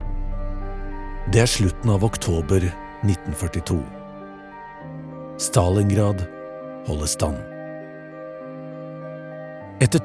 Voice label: norwegian documentaries